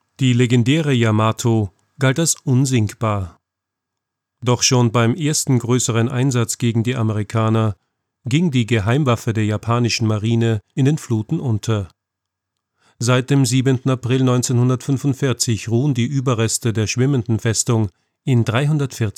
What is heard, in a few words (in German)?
Doku; Yamato